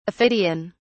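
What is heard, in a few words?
"aphidian", British English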